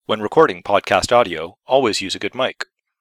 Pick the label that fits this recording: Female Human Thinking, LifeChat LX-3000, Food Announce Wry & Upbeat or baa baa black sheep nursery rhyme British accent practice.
LifeChat LX-3000